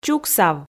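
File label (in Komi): "чуксав", Komi, female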